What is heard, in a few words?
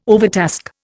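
"overtask", Indian English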